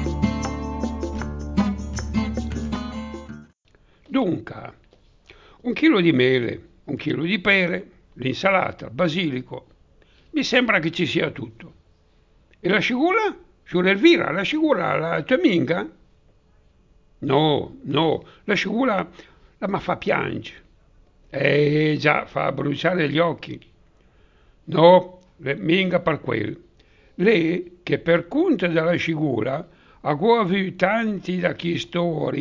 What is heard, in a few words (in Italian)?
dialetto; cipolla